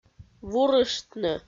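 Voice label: "вурыштны", Komi, female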